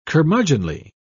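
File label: "curmudgeonly", British English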